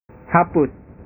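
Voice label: "hapus", Indonesian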